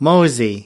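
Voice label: "mosey", us, male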